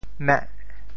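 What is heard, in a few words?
"meh8", Teochew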